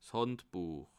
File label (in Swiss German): "werterbuech", alsace